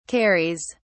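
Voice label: "carries", English, British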